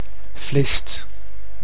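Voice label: "Vlist", Dutch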